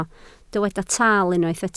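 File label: "taal" Welsh, spoken word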